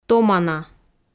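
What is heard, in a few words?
"томана", Komi, female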